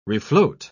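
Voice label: "refloat", English, uk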